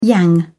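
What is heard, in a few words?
"yang", British English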